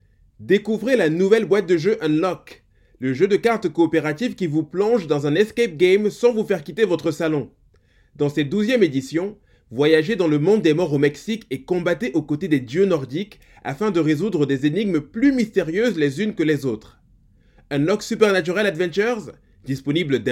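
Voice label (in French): Extrait (pub)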